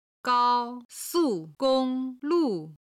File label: "gao1su4gong1lu4", Chinese